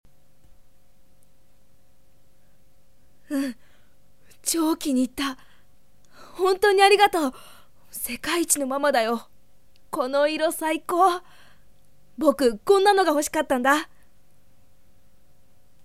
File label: Boy Son